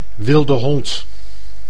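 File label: "wilde hond", Dutch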